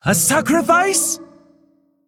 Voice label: Female Mogu Attack